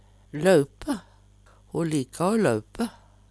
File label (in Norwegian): laupe, Numedalsmål; Ho lika o laupe.